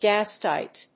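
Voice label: "gastight", English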